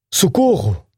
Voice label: help, Portuguese

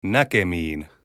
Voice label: goodbye, finnish

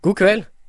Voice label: "good evening", Norwegian

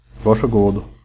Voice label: you're welcome, swedish

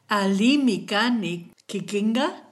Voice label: fine, kichwa

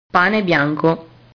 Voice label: "pane bianco", italian

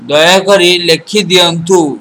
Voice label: write odia

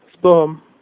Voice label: goodbye, slovak

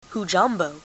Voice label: hello, swahili

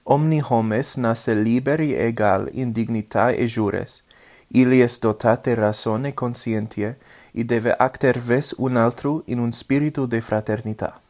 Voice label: udhr interlingue

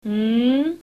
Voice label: shanghainese numbers

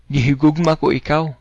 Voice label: I love you, cebuano

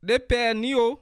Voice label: fine, bassa; Ɖé pɛɛ̀ nío

